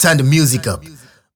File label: Vocals